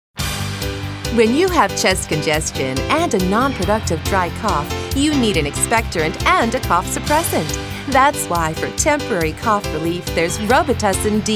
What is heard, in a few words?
radio in english; robitussin dm